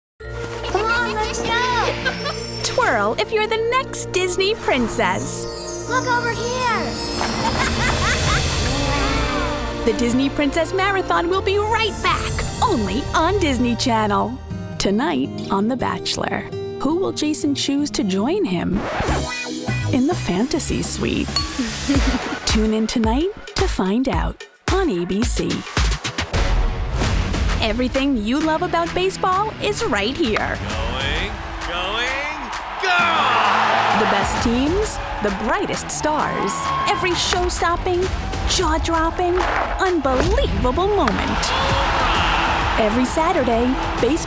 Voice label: TV Promo